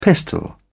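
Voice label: "pistol", British English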